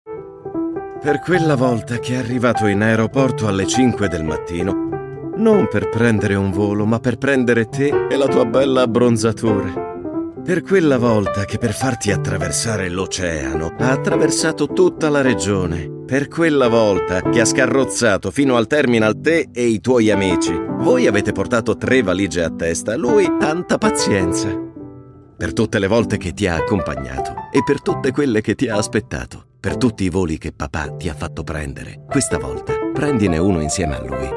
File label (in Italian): Adv Ita, italian, male; commercial